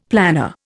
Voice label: "planner", Indian; Dictionary

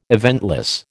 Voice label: "eventless", American

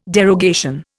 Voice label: "derogation", Indian

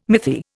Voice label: "mythi", Indian

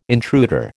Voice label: "intruder", American

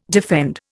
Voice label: "defend", Indian

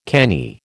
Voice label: "canny", American

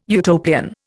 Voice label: "Utopian", Indian

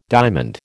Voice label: "diamond", American

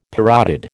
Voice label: "parotid", American

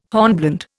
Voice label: "hornblende", Indian

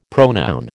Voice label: "pronoun", American

